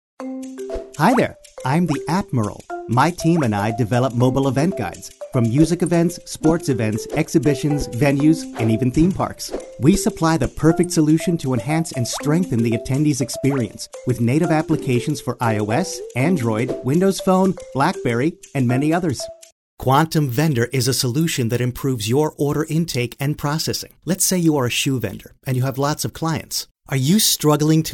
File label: Web Narration E Learing